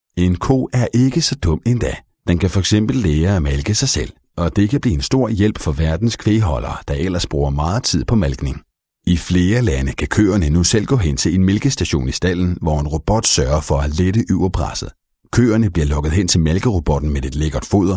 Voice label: denmark male filmvertonung sprecher

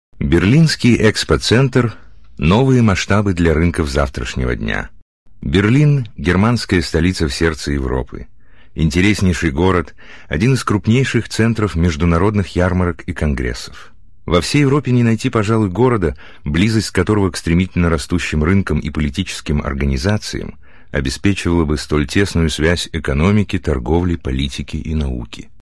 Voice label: russia male filmvertonung sprecher

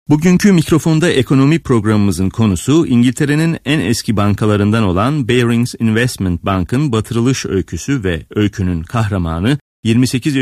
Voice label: turkey male filmvertonung sprecher

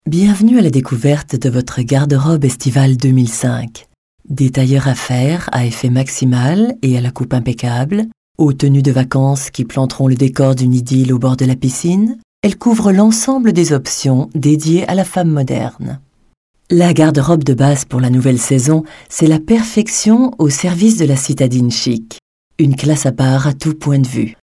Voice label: france female filmvertonung sprecher

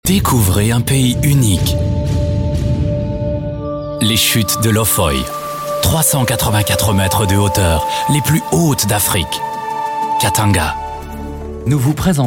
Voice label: france male filmvertonung sprecher

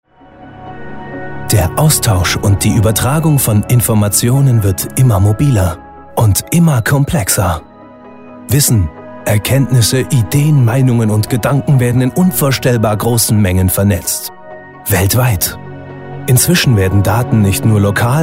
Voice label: germany male filmvertonung sprecher

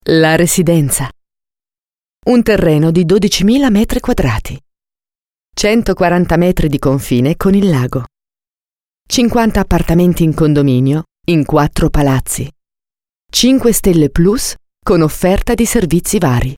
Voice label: italy female filmvertonung sprecher

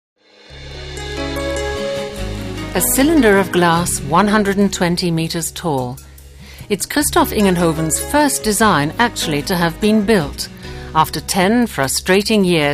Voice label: uk female sprecherin imagefilm

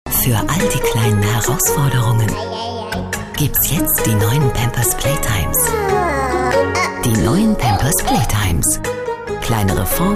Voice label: germany female werbung sprecher

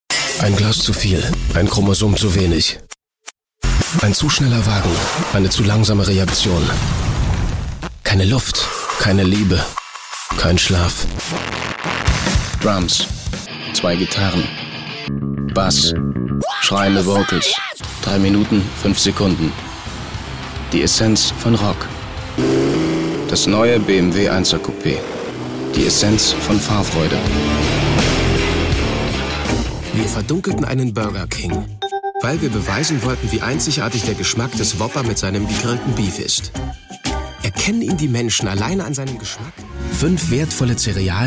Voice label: germany male werbung sprecher

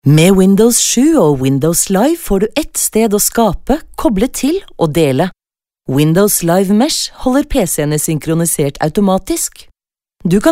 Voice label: norway female filmvertonung sprecher

